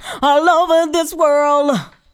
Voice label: ALL OVER DIS, FEMALE VOICE; Best Service; Hallelujah